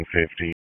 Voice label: PS TAC, p25

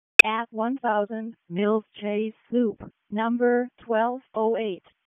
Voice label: WC EMS ALERT, p25; wake

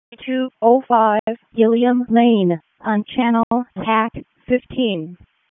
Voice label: WC EMS ALERT, p25

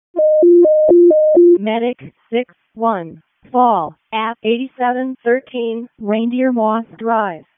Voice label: EMS ALERT, p25; wake

